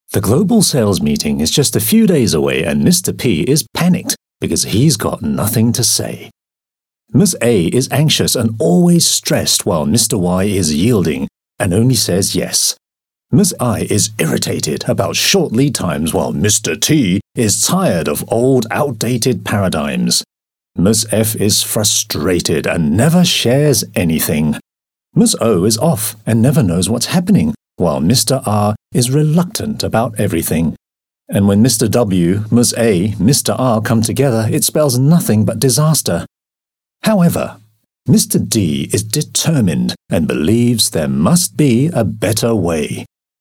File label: UK English Corporate, Male; Pay It Forward Faster